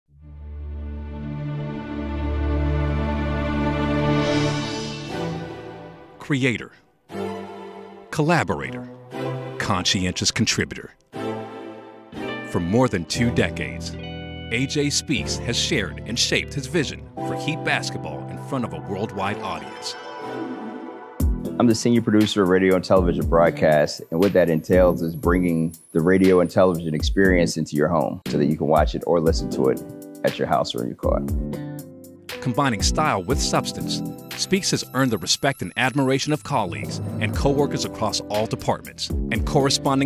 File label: Voiceover